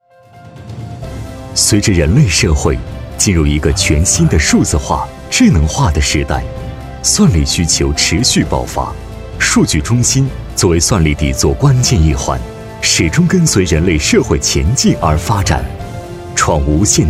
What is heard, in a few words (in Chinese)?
男 偏科技感; 中国移动设计院